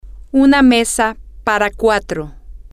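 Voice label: Spanish, female; a Table For Four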